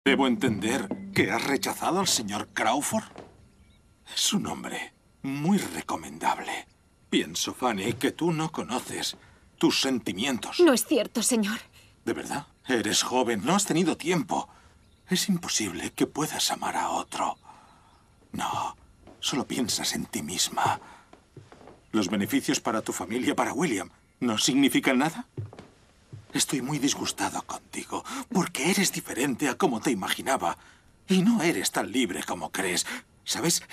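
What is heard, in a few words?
Spanish Spain male voice over, character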